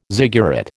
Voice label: "ziggurat", American

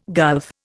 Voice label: "gulf", Indian

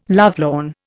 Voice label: "lovelorn", Indian

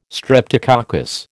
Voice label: "streptococcus", American

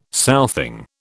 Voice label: "southing", British

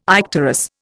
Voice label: "icterus", Indian

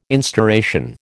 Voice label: "instauration", American

